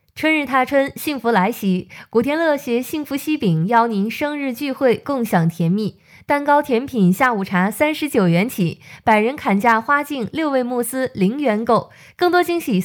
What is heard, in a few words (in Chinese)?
温暖促销